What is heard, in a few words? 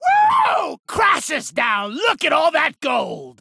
Kill Cry